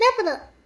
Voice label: double, vocal; Techmino